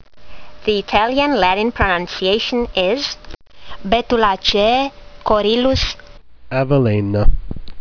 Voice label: latin; Corylus avellana